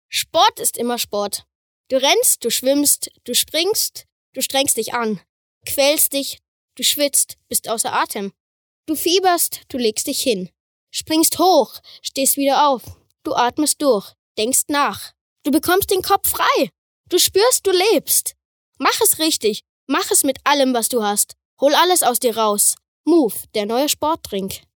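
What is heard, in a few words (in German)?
advert, Cool; Move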